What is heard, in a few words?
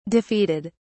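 "defeated", British English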